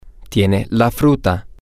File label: you have the fruit, spanish, M